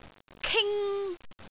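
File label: "king1", Cantonese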